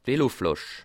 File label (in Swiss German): "veloflash", Alsace